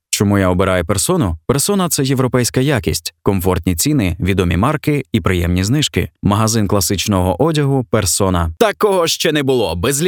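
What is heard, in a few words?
Ukrainian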